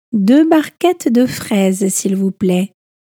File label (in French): deux barquettes de fraises, french; everyday french a1 a2